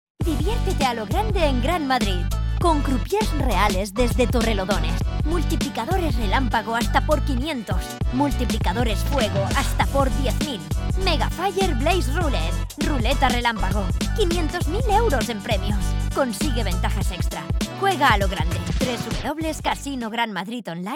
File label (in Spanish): Publi upbeat